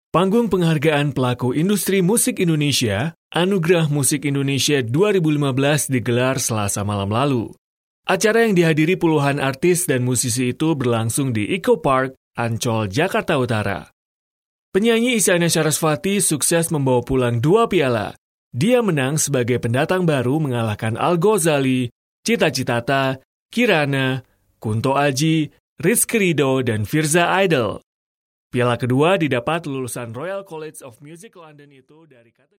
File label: male indonesian voice over narrative